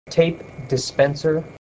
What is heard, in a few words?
"tape dispenser", voice